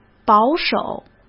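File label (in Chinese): "保守", Mandarin Chinese; hsk; chinese flashcards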